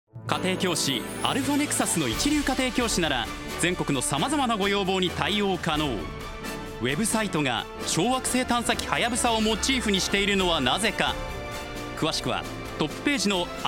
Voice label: radio CM